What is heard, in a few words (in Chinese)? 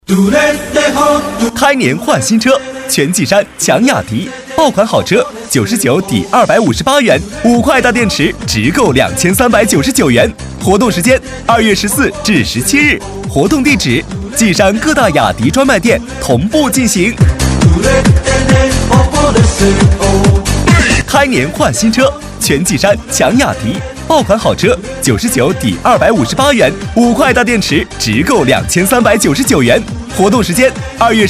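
男促销; 雅迪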